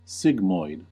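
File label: "sigmoid", American English; pronunciations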